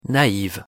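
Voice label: pronunciation "naive", French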